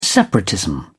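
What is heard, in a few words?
"separatism", British English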